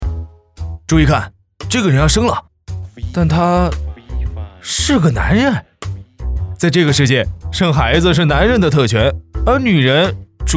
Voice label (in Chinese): 男 正经幽默 小促销